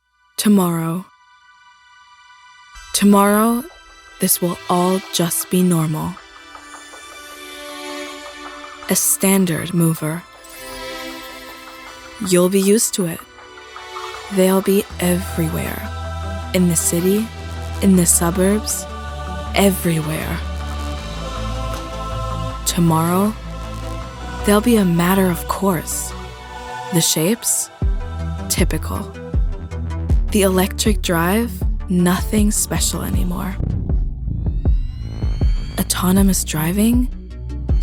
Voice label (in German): Imagefilm; HOLON; LOFT VOICES